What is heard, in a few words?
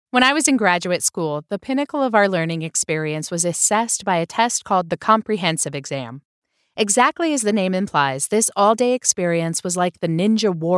nonfiction audiobook lighthearted, quirky, humor